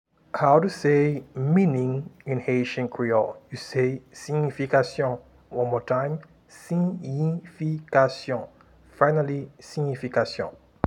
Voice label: Meaning in haitian creole Siyfikasyon pronunciation